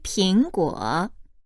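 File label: "ping2 guo3", Chinese, female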